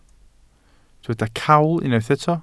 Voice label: "cawl", Welsh